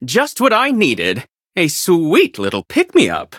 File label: levelup en